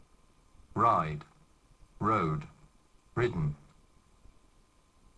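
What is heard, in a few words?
"ride", English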